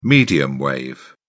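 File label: "medium wave", English, British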